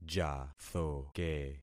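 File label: "jathoke", concatenated syllables; Affixing experiment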